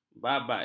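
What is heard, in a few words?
"babat", Malay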